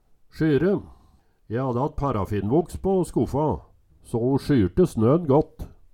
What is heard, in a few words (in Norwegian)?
"sjyre", Numedalsmål